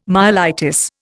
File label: "myelitis", Indian